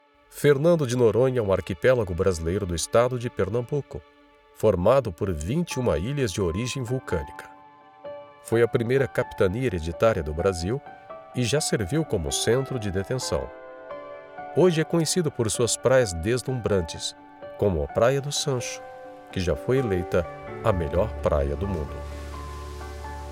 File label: portuguese brazilian documentaries